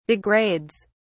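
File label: "degrades", British English, dictionary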